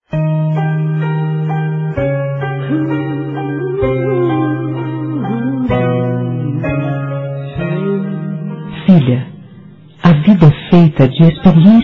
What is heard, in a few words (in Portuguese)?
mensag para filha fem; telemensagens